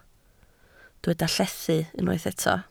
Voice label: "llethu", Welsh